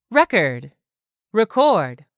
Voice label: "RECORD", english; 3000 words

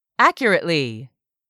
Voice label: "accurately", English; 3000 words

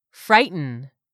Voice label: "frighten", English; 3000 words

